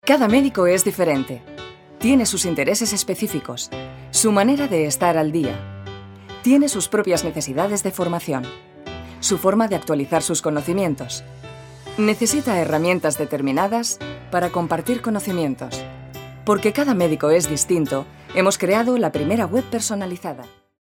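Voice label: Spanish corporate, female; spansk-europa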